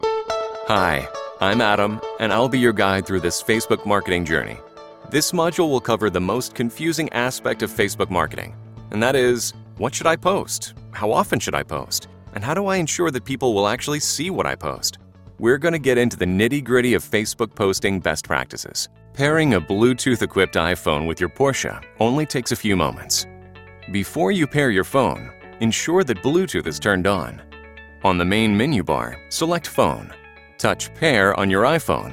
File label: eLearning